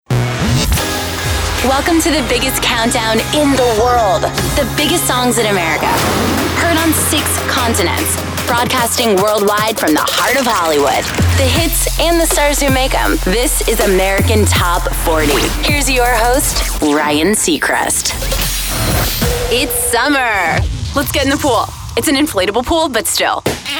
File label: Radio Imaging